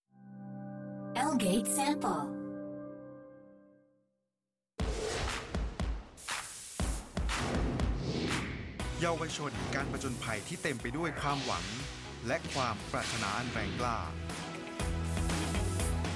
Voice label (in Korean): 태국 남 밝은 톤; 청년학생연합